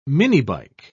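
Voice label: "minibike", British English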